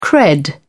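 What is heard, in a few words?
"cred", British English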